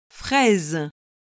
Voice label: "fraise", French

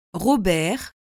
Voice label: "Robert", French

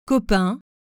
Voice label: "copain", French

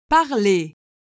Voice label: "parler", French

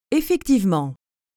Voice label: "effectivement", French

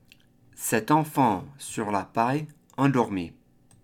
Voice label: cet enfant, French; Douce nuit, sainte nuit

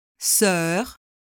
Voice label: "soeur", French

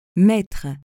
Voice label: "mettre", French

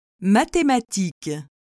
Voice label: "mathématiques", French

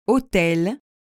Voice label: "hôtel", French

